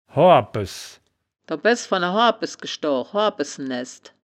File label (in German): "hoabpes", wittlich; das wittlicher woerterbuch; woerterbuch vertonung